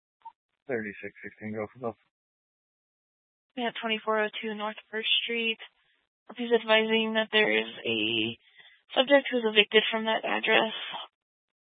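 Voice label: Police Dispatch, Scanner audio; Police 1 Dispatch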